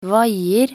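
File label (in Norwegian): "vaier", Norwegian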